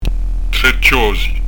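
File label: "chjosi", Corsican; trè tj'òzi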